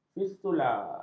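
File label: "fistula", Malay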